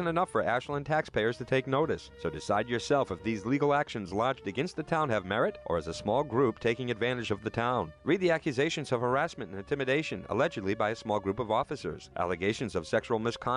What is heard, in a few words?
election day robocall; ashland news